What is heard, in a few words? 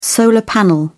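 "solar panel", English, British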